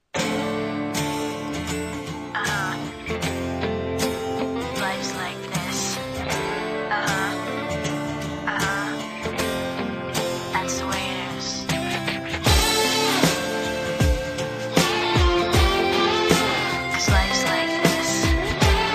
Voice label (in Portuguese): aniversario NEUTRO PLURAL, telemensagem aniversario neutro